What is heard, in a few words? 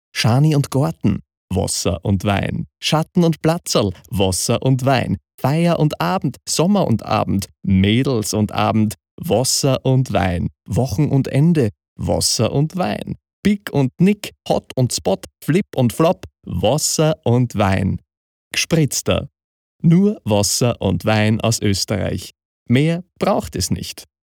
german austrian corporate videos